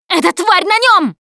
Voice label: hunter pounced, Russian; Voice; Producer